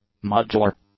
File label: "modular", English; adjectives